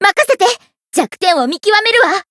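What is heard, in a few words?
Voice variable chant, Japanese; alma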